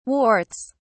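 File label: "warts", British English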